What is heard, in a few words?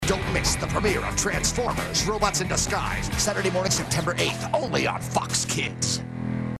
Commercial Narrator; Robots In Disguise television show